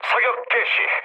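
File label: Korean attack position mood low; War Thunder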